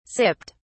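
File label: "sipped", British English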